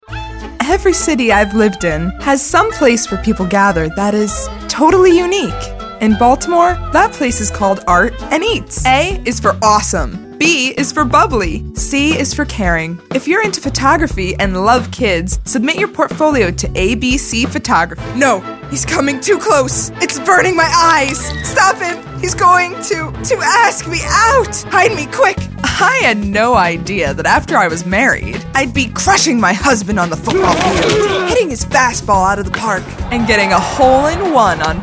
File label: Commercial